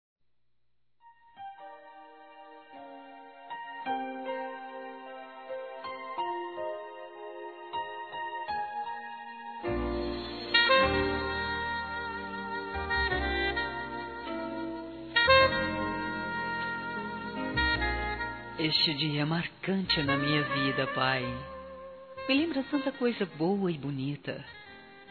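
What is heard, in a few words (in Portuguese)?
Natal para pai feminino, Telemensagens; Natal e Ano novo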